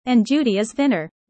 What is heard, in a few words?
user fast